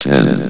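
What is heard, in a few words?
"ten", male, vox; valve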